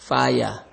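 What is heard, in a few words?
"fire", Nigerian Pidgin, male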